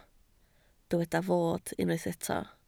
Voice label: "fod", Welsh

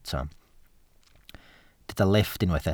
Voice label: "lifft", Welsh

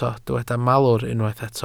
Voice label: "malwr", Welsh

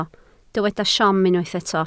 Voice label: "siom", Welsh

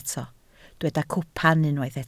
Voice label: "cwpan", Welsh

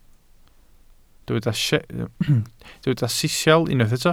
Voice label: "sisial", welsh

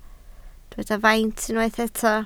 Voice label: "faint", Welsh

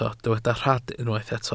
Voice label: "rhad", Welsh

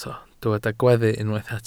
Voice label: "gweddu", Welsh

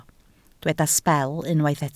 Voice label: "sbel", Welsh

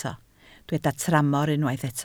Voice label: "tramor", Welsh

